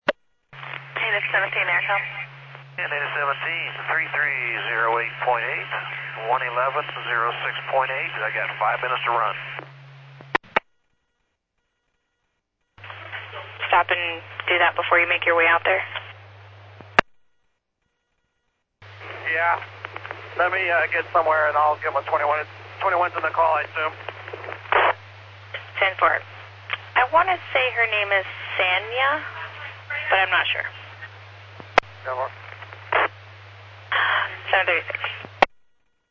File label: Medevac, scanner; NativeAirAmb